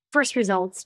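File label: Voice Acting es, female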